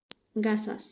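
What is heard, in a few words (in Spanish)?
"gasas", Spanish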